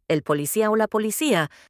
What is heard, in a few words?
police in spanish